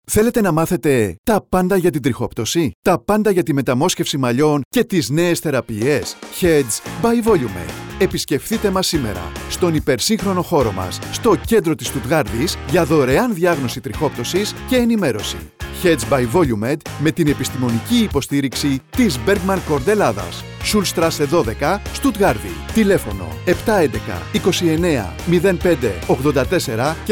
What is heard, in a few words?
radio spots; trixoptwsi metamosxefsi malliwn therapies; thelete na mathete; germany